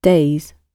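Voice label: "daze", British English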